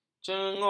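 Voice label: "cengung", Malay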